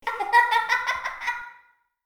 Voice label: Diner Voice; Levels Hub Diner